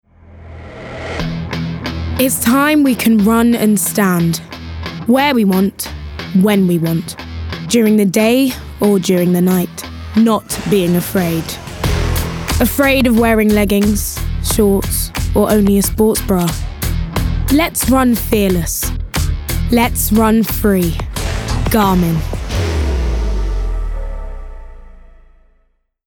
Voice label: Ad; Garmin